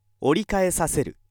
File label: male